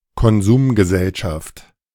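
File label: "Konsumgesellschaft", German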